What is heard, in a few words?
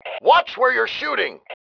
watch it, male